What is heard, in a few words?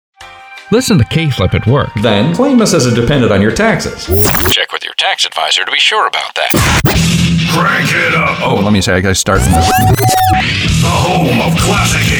Promo